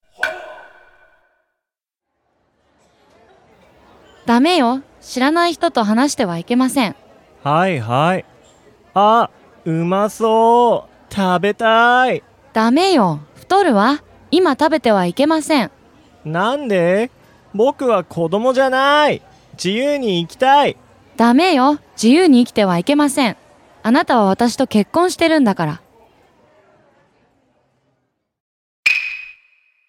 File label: dialog; JapanesePod101; Beginner Season 1